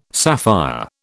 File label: "sapphire", British